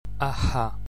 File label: "h, sh, th", gaelic